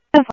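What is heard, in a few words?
Mesa Police Department, scanner audio; Mesa Simulcast; MESA PD Superstn MesaPoliceDep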